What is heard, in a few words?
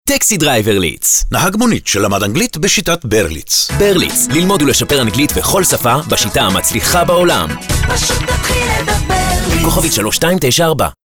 Radio; Berlitz; Taxi Driver